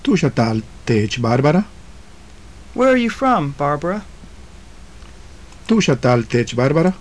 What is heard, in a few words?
conversation, maya; Tu'ux a taal teech, Barbara?; Where are you from, Barbara?